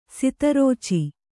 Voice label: "ಸದ್ರುಚಿ", Kannada

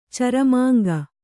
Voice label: "ಚರಮಾಂಗ", kannada

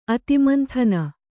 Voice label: "ಅತಿಮಂಥನ", kannada

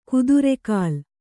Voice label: "ಕುದುರೆಗಾಲ್", Kannada

